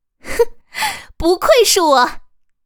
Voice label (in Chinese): 胜利, BattleCry; ycrpg